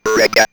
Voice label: Robot Reggae, robot vox; X-Static Goldmine

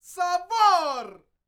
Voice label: MEXICO VOICE, MEXICAN; ILIO; Vocal Planet VOL-5

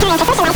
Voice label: VOX TIME, NES DPCM; MIDINES

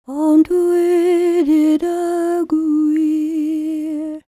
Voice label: WELSH F, World Voices

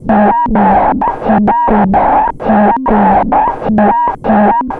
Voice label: signal, Vocal Loops; Transmission-X

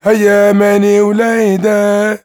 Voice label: MAN VOICE, Arabian Traditions

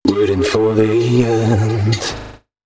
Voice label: male d#130, spoken hooks

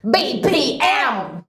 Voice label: "BPM", SHOUTS; Best Service; Hyper Dance

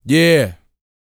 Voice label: YEAH, Vocal R&B & Dance, HIP-HOP; ILIO; Vocal Planet

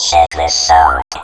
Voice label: CHECK THIS, Funky Vocals; USB Soundscan vol.18; AKAI; COMPUTER

